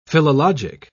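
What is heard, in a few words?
"philologic", British English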